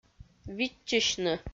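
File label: "видзчысьны", Komi, female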